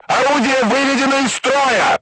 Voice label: voice message weapon destroyed, crew tanks russian